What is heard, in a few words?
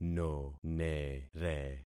"nonere", concat syllables; Affixing experiment